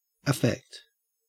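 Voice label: "affect", Australian English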